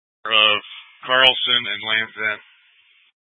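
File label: Incident Tac 1, Scanner audio recording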